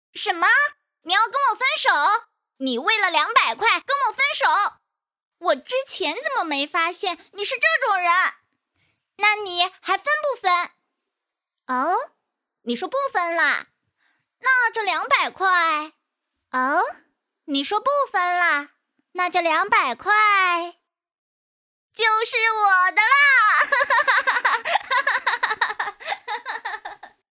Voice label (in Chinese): 古灵精怪少女角色配音